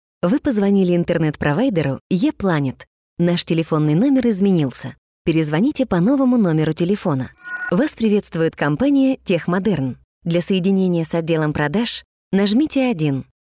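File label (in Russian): phone system, Мужчина, Молодой, Русский, Звонкий, Высокий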